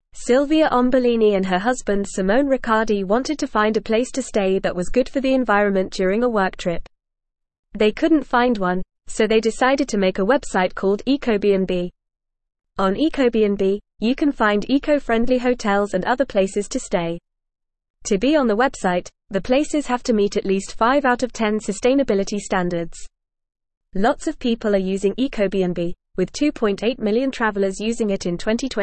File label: English Upper Intermediate FAST Reading; The Rise of Sustainable Tourism A Green Revolution; English Newsroom